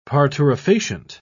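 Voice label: "parturifacient", British English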